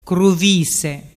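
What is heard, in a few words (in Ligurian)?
"cruvise", Ligurian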